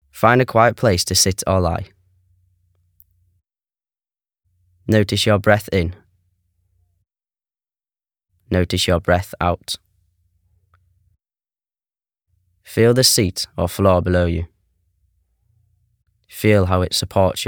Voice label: Male voice; Calm down and take a minute